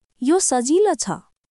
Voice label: Nepali, basic phrases